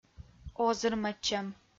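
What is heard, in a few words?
"озырмӧдчӧм", Komi, female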